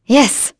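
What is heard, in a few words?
Vox Happy, voices heroes English; kingsraid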